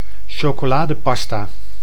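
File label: "chocoladepasta", Dutch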